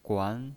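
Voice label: "guan", Mandarin Chinese, second tone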